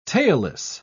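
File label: "tailless", British English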